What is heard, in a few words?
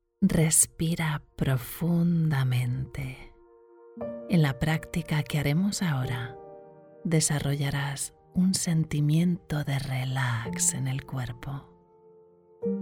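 Spanish, female, narration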